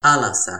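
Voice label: "alasa", Toki Pona; linku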